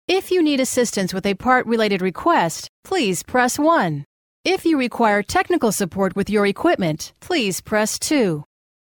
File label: English native, bilingual